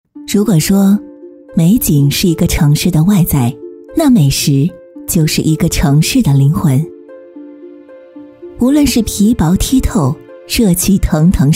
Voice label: 旁白 女; 大美内蒙

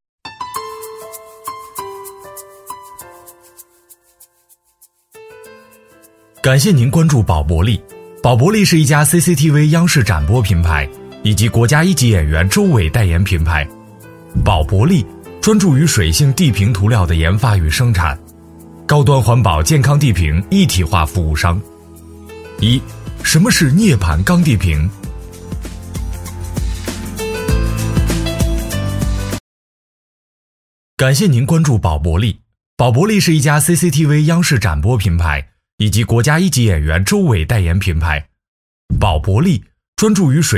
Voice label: 专题片-男3-企业介绍; 官方科技; 宝珀丽品牌

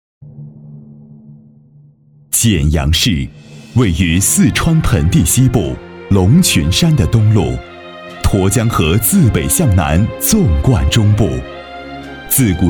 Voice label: 宣传片-男14; 建阳市

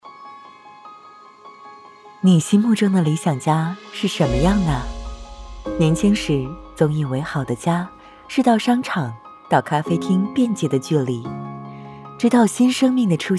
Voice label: 走心叙述

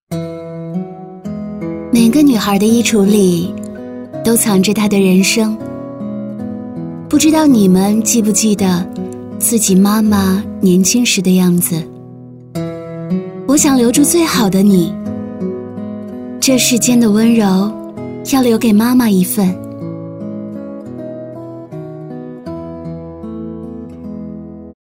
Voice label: 旁白 女; 每个女孩的衣橱里都藏着她的人生

